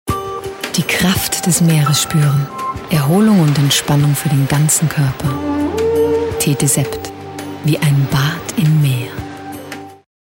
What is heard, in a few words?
de soft sell